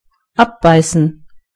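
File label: bite, German voices words; gcompris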